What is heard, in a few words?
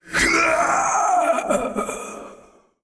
Male Old God Death